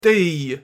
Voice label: "tyj", Silezisch; thee-in-andere-talen

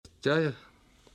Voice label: "чаай", Dolgan; thee-in-andere-talen

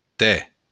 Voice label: "tè", Occitaans; thee-in-andere-talen

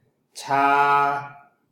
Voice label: "ชา", Thais; thee-in-andere-talen

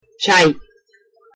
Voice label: "чај", Macedonisch; thee-in-andere-talen

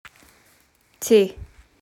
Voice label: "טיי", Jiddisch; thee-in-andere-talen

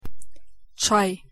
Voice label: "čaj", Bosnisch; thee-in-andere-talen

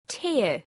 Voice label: "teatha", Schots-Gaelisch; thee-in-andere-talen

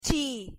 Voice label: "டீ", Tamil; thee-in-andere-talen

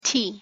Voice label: "tea", Amerikaans; thee-in-andere-talen

